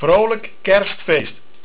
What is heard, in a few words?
"Vrolijk", Dutch